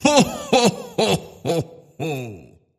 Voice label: Jolly Santa Ho Ho Ho